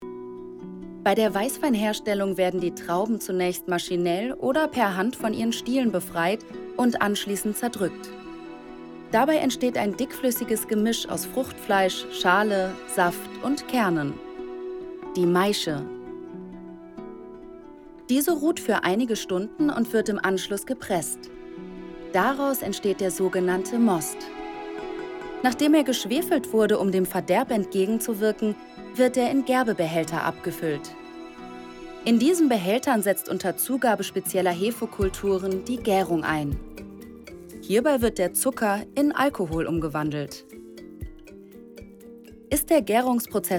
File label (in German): Doku; Wein; Sprecherin für Doku